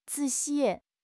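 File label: "zi3 xi4", Chinese; 汉语口语新时代-初级下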